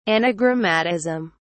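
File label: "anagrammatism", British English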